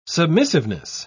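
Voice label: "submissiveness", British English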